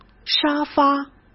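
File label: "沙发", Mandarin Chinese; hsk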